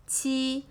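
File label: "Qi", seven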